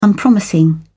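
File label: "unpromising", British English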